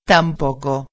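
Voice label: "tampoco", Spanish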